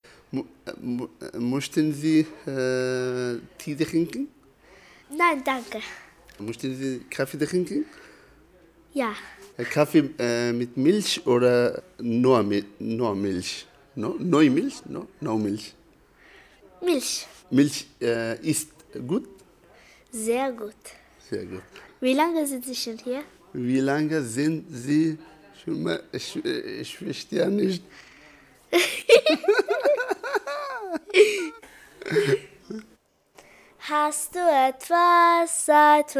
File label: Deutsch